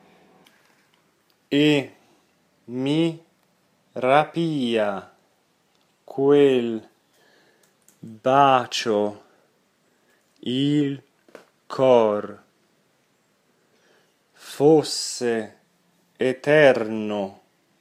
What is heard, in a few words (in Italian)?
monotono